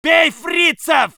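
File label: voice soviet infantry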